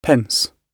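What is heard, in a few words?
"pence", British English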